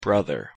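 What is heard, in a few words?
"brother", American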